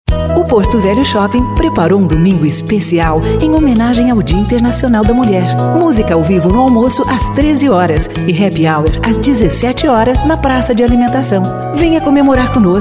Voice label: Brazilian F Commercial, Portuguese Female